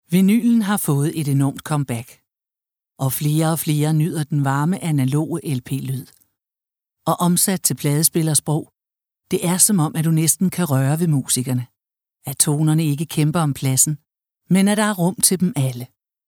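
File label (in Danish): Female Danish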